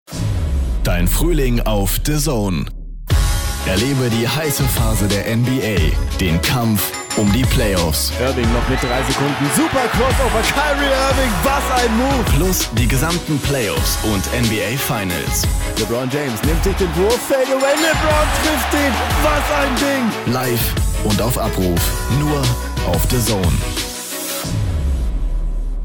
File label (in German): werbung; dazn